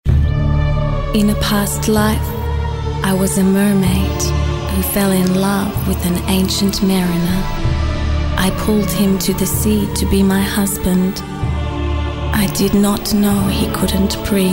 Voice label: character

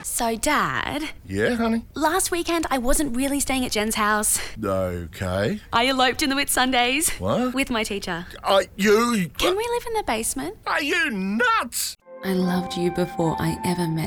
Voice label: Acting

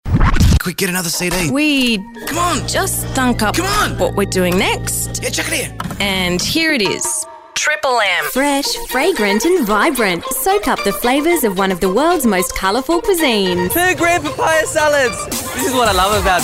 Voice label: promos

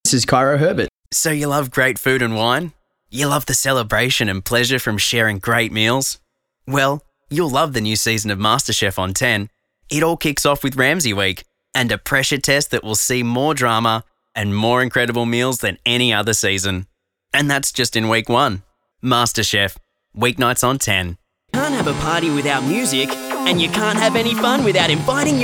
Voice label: Promo

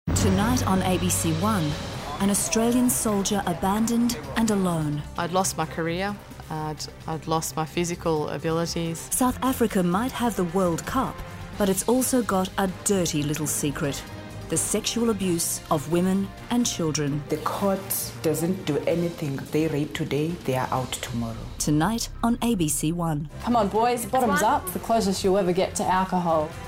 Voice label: news promo

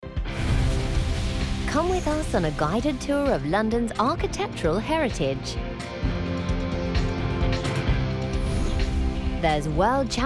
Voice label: narration

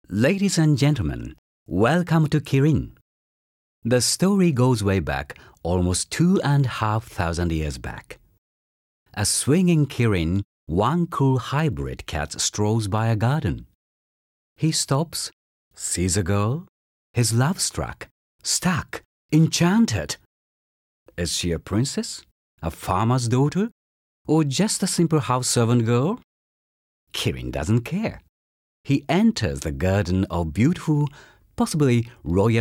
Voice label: english sample

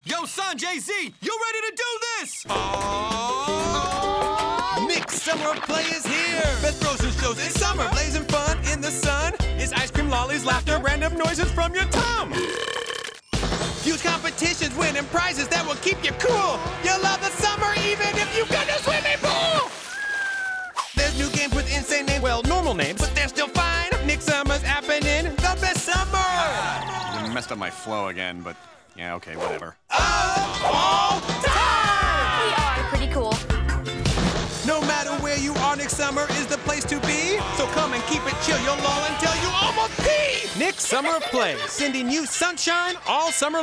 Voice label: character accent; NICKELODEON

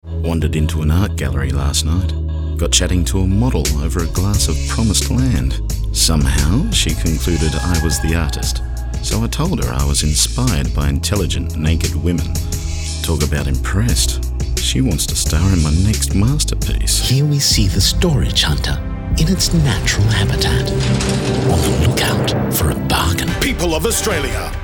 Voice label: CHARACTER

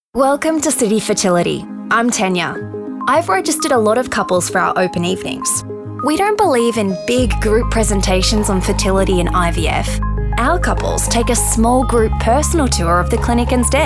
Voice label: Narration

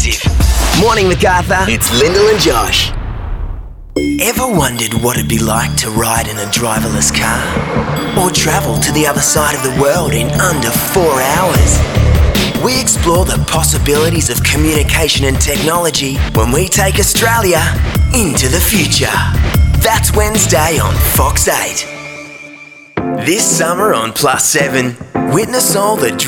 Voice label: Promo

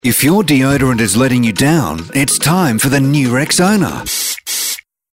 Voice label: voiceover; Rexona

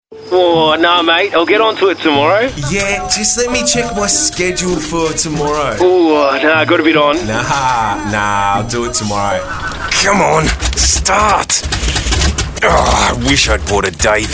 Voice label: Voice Acting

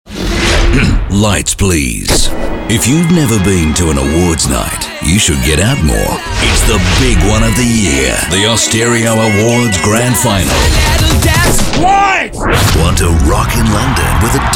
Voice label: Radio Promos

